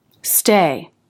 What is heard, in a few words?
"stay", English